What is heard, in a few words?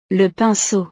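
"le pinceau", French; utiles escolares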